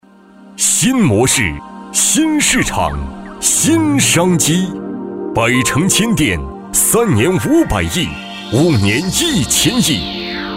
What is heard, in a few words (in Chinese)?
专题 大气力度; 光能; 新域光能